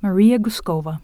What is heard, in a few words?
english pronunciation, [məˈɹijə ɡuˈskoʊvə]